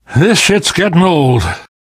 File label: player transition close, voice; source engine; left 4 dead 2; namvet